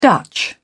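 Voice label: "dutch", British English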